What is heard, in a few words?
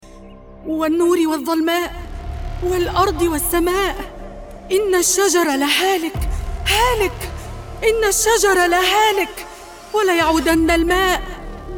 arabic impersonations